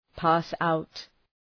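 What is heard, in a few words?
"pass out", English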